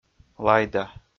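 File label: "лайда", Komi, male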